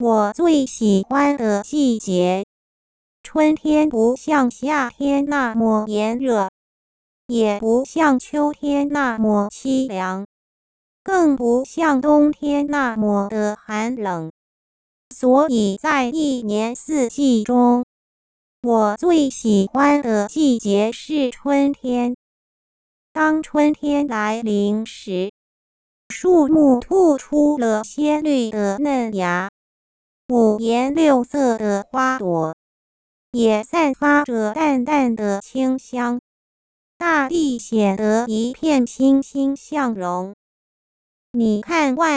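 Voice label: Synthetic Speech, Mandarin singing voice synthesis, Using linear time mapping and PSOLA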